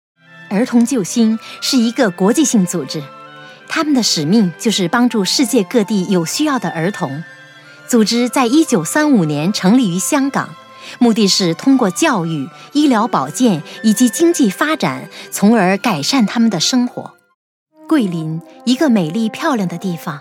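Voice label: Mandarin, narration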